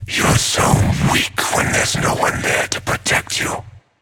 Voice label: taunt directed; Cursed Scarab Khepri; hera; Smite